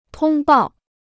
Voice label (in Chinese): "通报", Chinese